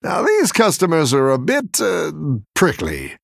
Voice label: Male Human; Scabbs; Quilboar